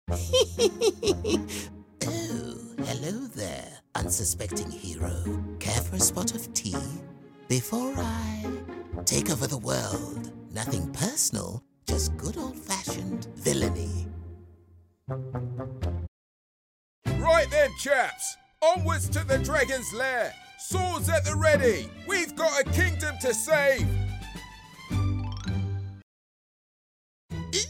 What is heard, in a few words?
Character Voice, voiceover